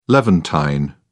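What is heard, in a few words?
"levantine", British English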